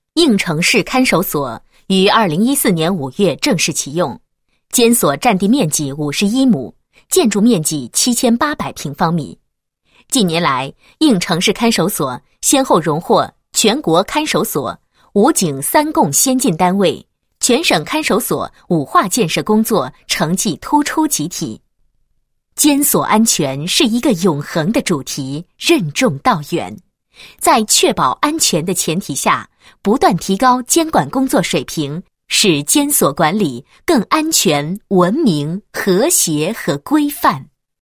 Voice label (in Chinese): 女-看守所-某市看守所宣传简介, 配音案例